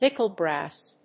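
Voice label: "nickel brass", English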